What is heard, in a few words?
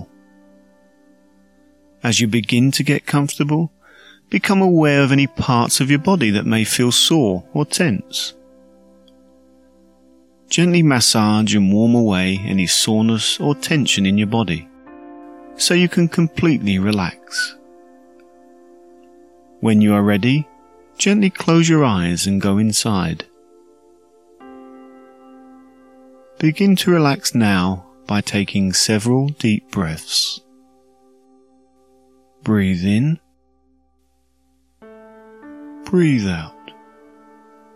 Meditation; The Morning Meditation